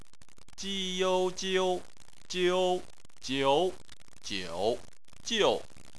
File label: "jiu", chinese